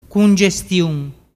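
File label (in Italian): "cungestiun", dialect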